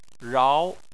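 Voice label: "rao", Chinese, tone 2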